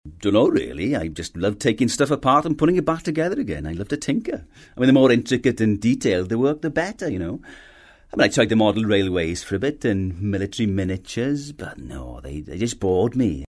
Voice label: Welsh